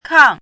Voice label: "kang4", pinyin

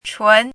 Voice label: "chun2", pinyin

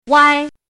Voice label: "wai1", pinyin

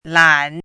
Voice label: "lan3", pinyin

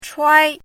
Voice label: "chuai1", pinyin

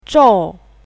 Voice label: "zhou4", pinyin

